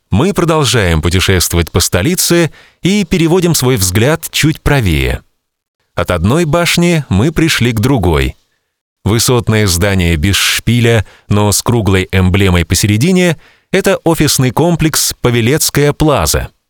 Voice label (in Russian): informacionno, Озвучить вебинар